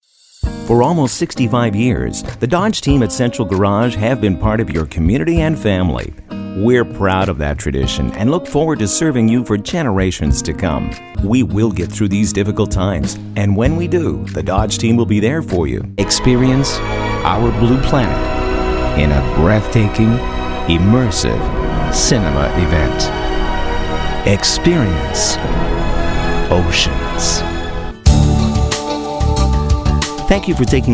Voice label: Commercials